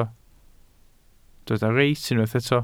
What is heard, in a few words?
"reit", Welsh